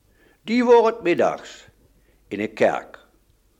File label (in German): Kontraktion, dialekt; Meiderich; sprache